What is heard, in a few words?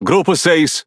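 Speech Pilots Torpedo, Brazilian Portuguese; World Of Warships; PT BR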